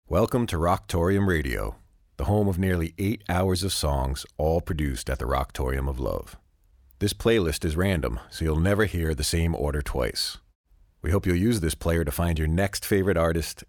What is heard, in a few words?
Rocktorium Radio Welcome